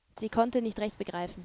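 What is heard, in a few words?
"begreifen", female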